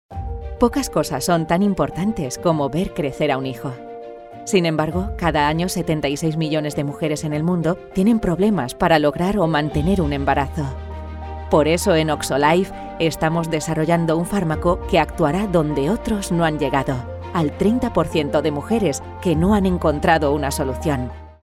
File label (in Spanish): corporativo amable; oxo